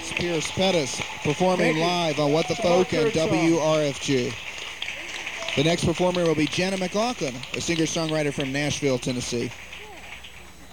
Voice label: radio announcer

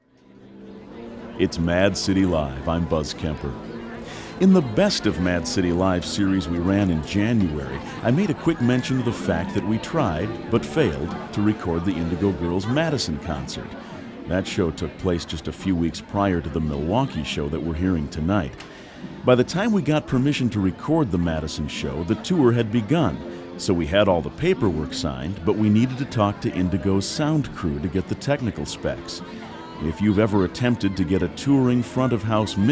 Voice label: announcer

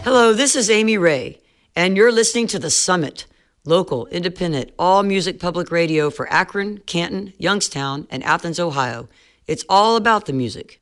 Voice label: radio station promo

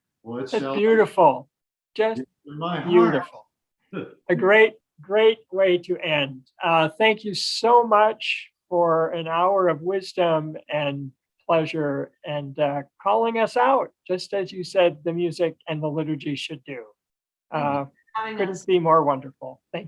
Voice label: conversation

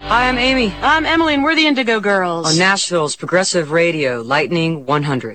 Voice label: radio promo

